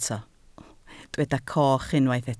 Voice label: "coch", Welsh